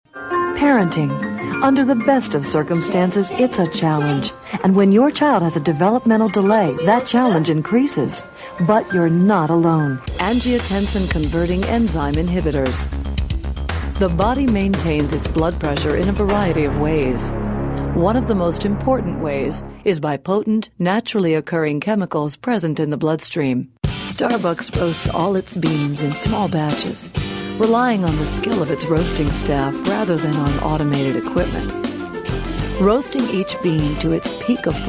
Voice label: narrations